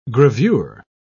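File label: "gravure", British English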